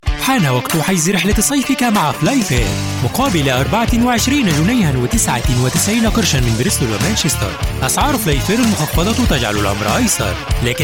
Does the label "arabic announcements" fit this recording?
Yes